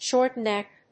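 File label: "short neck", English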